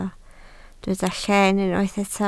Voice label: "llen", Welsh